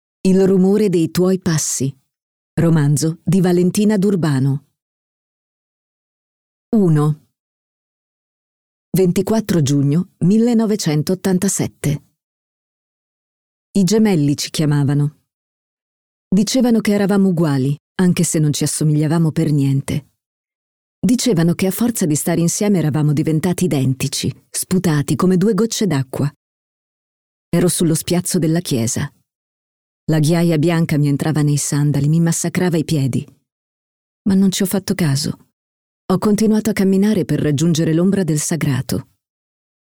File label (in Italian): produzioni audio, audiolibri